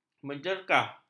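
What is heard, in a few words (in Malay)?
"menjerkah", Malay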